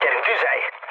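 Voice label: attack position, mood med, Hungarian; War Thunder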